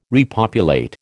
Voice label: "repopulate", American

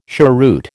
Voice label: "cheroot", American

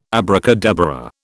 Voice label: "abracadabra", British

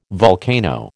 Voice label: "volcano", American

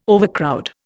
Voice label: "overcrowd", Indian

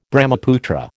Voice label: "Brahmaputra", American

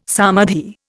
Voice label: "samadhi", Indian

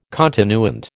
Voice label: "continuant", American

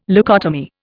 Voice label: "leucotomy", Indian

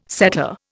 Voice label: "setter", Indian

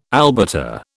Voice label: "albata", British

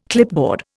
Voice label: "clipboard", Indian

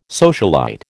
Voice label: "socialite", American

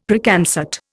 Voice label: "Preconcert", Indian; Dictionary

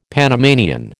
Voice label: "Panamanian", American

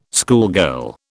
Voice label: "schoolgirl", British

